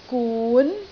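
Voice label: "gun2", Cantonese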